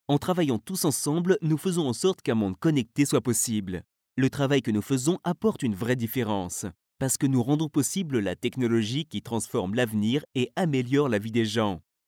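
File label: French, France, male, voice over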